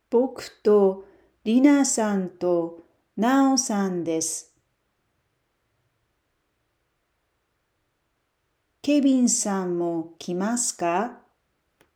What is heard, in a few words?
japanese Dialogue